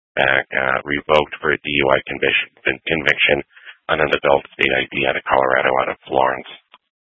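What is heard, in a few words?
Troop 2A Dispatch, scanner audio recording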